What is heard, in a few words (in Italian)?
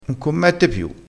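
"commette", vocabolario dialettale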